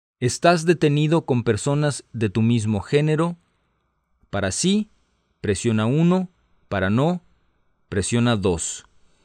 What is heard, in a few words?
Spanish, hotline